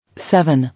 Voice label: "Seven", English

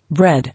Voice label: "Bread", English

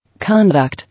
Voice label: "Conduct", English

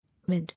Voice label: "Wind", English

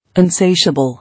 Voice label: "Insatiable", English

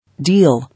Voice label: "Deal", English

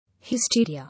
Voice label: "Hysteria", English

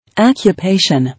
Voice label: "Occupation", English

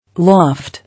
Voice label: "Loft", English

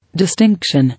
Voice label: "Distinction", English

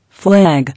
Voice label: "Flag", English

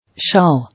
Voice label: "Shawl", English

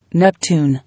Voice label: "Neptune", English

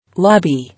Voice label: "Lobby", English

